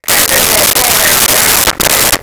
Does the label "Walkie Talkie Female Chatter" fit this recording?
Yes